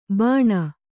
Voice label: "ಬಾನ", Kannada